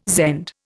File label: "Zend", Indian